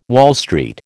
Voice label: "Wall Street", American